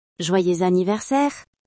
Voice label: happy birthday in french